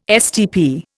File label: "STP", Indian